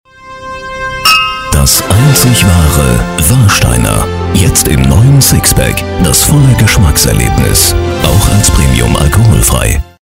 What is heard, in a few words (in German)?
TV-Spot; Warsteiner